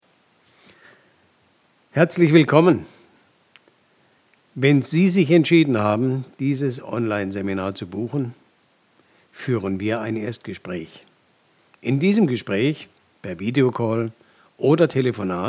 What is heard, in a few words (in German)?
Werbung Podcast Kurs